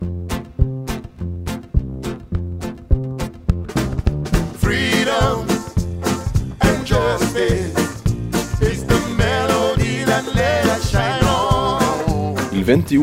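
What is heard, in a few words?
jingle Italian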